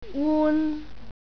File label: "wun", Cantonese